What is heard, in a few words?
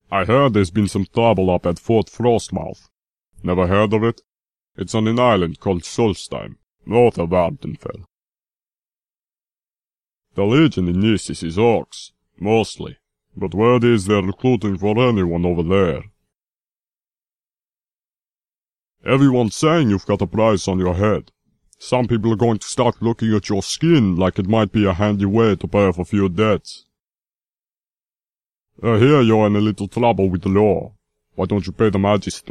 nord latest rumor, voice auditions